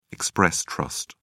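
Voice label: "express trust", British English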